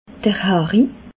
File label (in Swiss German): "hari", alsace